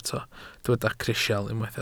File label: "crisial", welsh